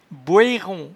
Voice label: "boueron", patois jura